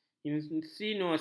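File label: "insinuasi", Malay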